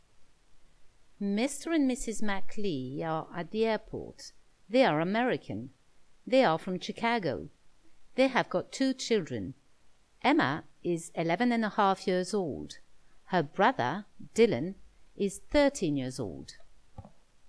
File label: airport dictation